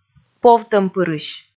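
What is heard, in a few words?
"повтӧмпырысь", Komi, female; kpv audio dict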